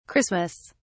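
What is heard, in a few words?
"christmas", British English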